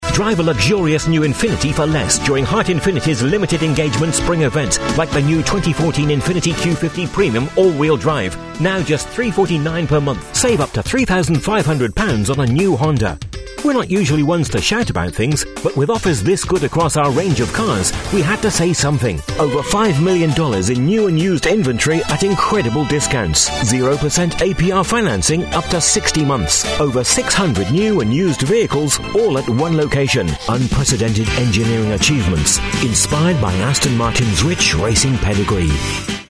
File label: car spots